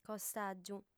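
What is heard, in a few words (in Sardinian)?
"costàgiu", female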